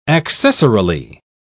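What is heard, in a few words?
"accessorily", British English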